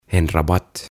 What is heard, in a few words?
"En rabatt" "Kukkapenkki"